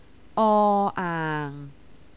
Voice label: "ang", Thai